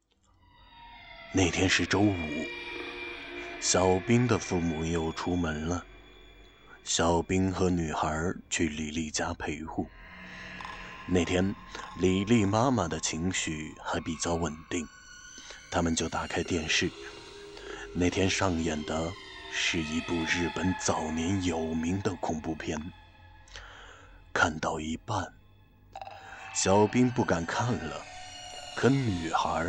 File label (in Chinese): 悬疑